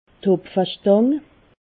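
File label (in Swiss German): "hopfestang", Alsatian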